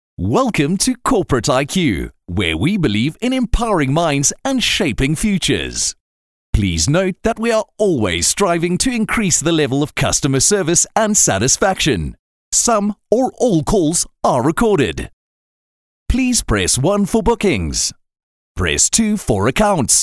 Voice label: IVR